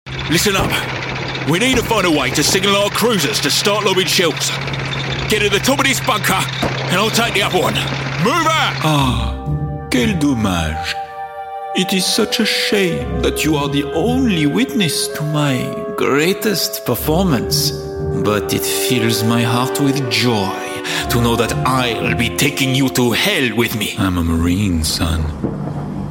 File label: french voice videogame